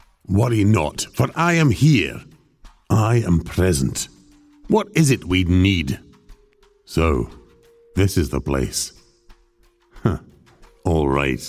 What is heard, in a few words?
english scottish video games